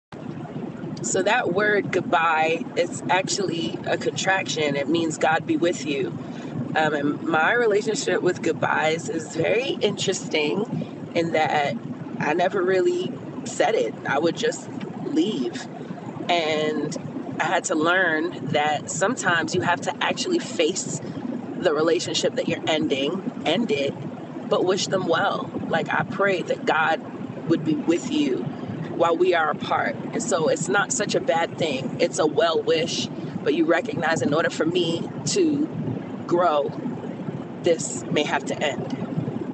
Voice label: car chat